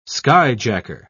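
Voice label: "skyjacker", British English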